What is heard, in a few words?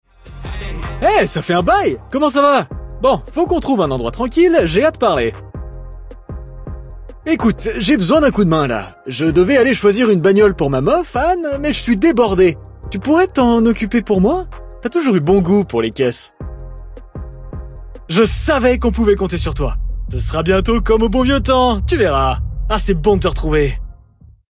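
Character Natural (French)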